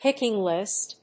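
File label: "picking list", English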